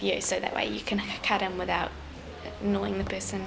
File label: English, New Zealand School Children